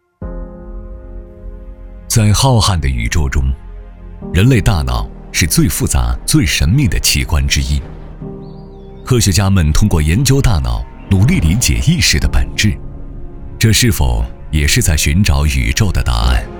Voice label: 男 宣传片; 慧创医疗

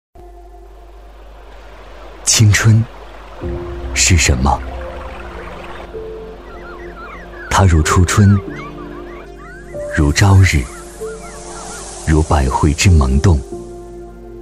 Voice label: 男 走心; 我们的青春

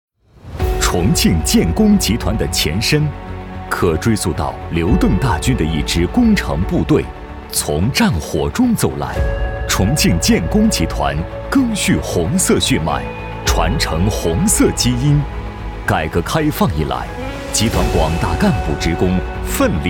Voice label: 男 工程 稍激昂; 重庆建工集团

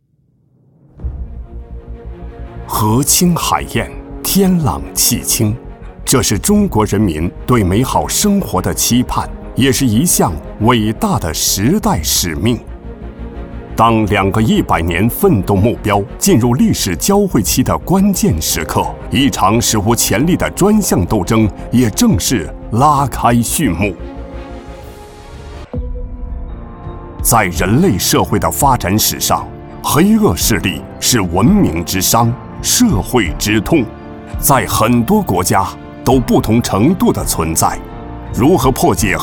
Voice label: 男 反腐 宣传

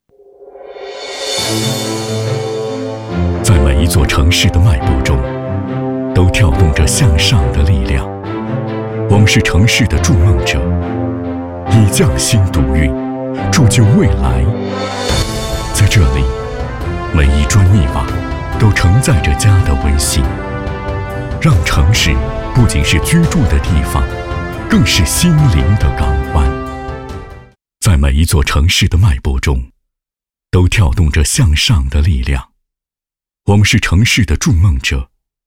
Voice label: 男 专题 城市规划; 每一座城市

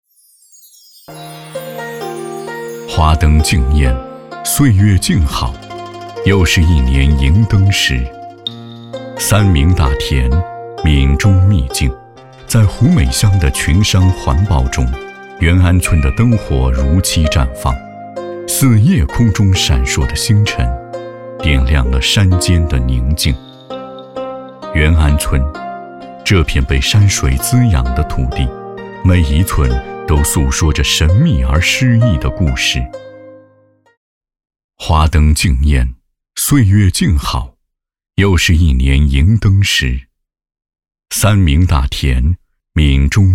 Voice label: 男 非遗申报; 元安刘家迎灯

